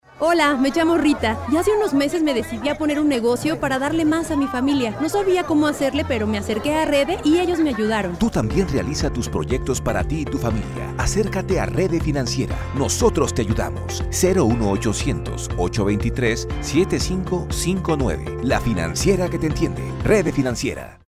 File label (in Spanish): spot radio financiera; spots publicitarios; redde